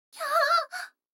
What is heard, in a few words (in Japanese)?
女の子 台詞, ASMR